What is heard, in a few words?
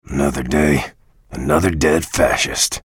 chatter positive; bjblazkowicz; frag